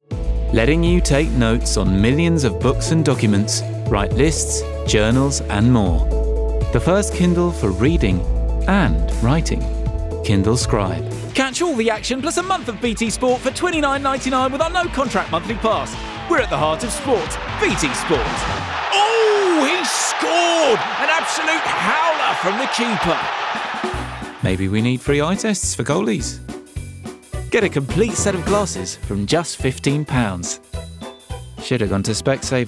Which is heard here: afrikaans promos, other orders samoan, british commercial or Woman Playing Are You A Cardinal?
british commercial